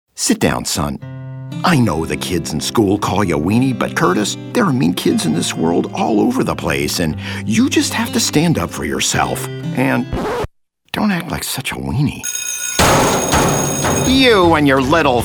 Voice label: animation video games

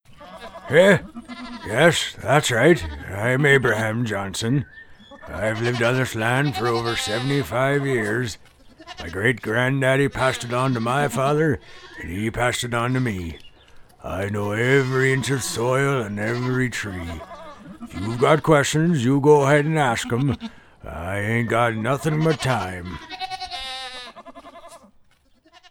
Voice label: Old Men Farmer Gold Prospector

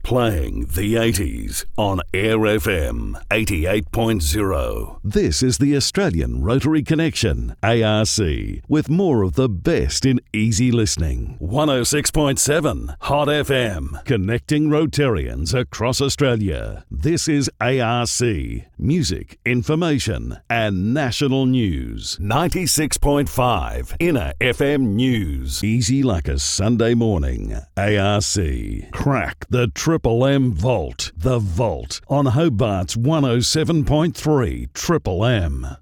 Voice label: Radio ID s Imaging

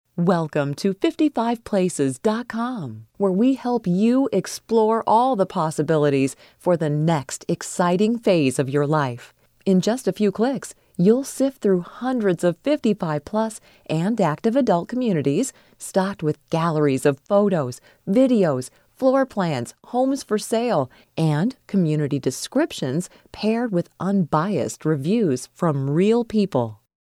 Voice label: Website Voice

